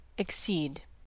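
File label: "accede", US English; pronunciation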